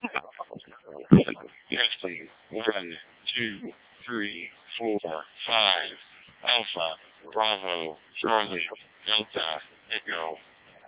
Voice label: freedv snr -03; 700D